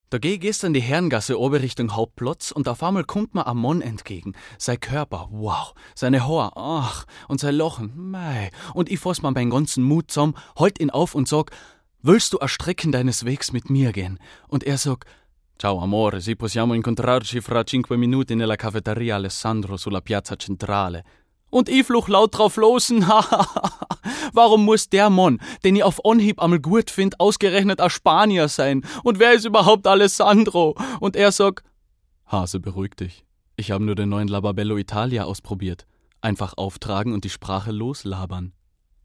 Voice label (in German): Werbung